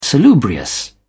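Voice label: "salubrious", British English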